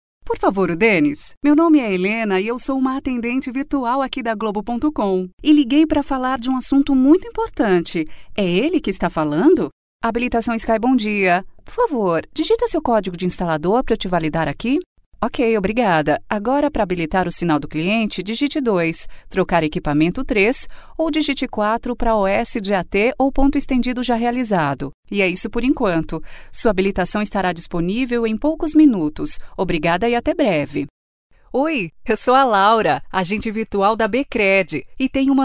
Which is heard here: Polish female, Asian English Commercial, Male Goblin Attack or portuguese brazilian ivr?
portuguese brazilian ivr